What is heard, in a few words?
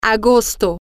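august, Spanish, female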